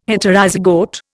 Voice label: "Heterozygote", Indian

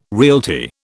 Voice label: "realty", British

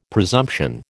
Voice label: "presumption", American

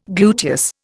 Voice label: "gluteus", Indian

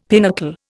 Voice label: "pinnacle", Indian; Dictionary

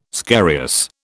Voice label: "scarious", British

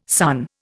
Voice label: "sunn", Indian

